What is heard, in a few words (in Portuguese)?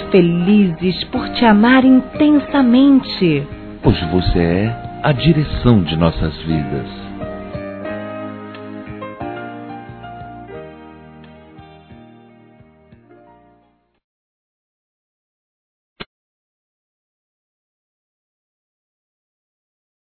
MÃE, AMOR DE SEMPRE- DUAS VOZES; Dia das Mães; Masc; Telemensagens 2017